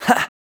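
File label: vocal male, Dance Vocals, spoken words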